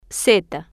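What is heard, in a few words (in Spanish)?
"z", spanish, female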